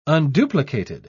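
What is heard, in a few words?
"unduplicated", British English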